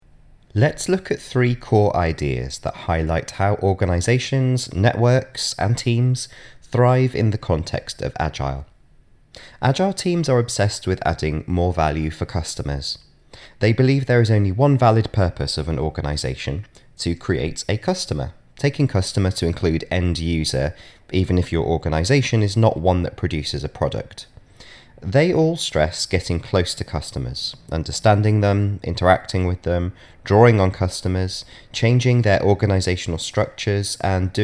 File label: Narration; Radius; AI